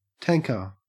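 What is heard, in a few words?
"tanker", Australian English; pronunciation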